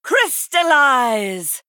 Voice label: Female BloodElf Attack